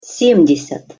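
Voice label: "sem desjat", Russian pronunciation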